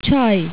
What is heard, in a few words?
"Cay", turkish